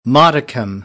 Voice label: "modicum", American; AmericanBritish